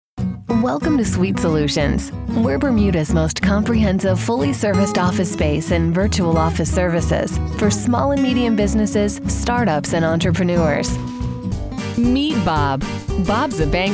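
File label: Instructional Corporate, female voice actor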